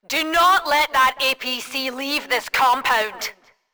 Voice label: rant; campaign wildfire; imogen

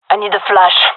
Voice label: request flash, gendarmerie fem; vo

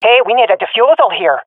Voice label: cover defuse, swat fem; vo; Counter Strike Model Pack; CS:GO

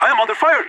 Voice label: radio taking fire; leet

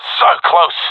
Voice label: ten seconds remaining, professional epic; vo; Source Model Packs; Counter Strike Model Pack; CS2

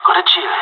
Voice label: scared emote, pirate; Counter Strike Model Pack; CS:GO

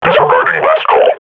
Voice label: combat idle, grunt

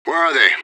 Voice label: radio bot query; fbihrt

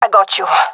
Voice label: covering friend, gendermerie fem; vo

